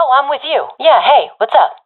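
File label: following friend, professional female; vo; Counter Strike Model Pack; CS:GO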